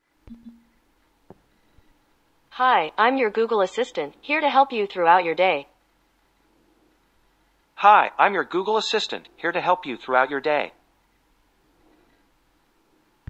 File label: google assistant voice